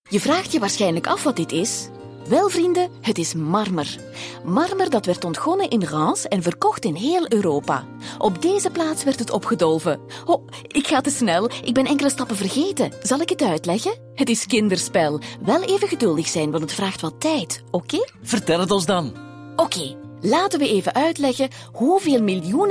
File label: Museum Guide animated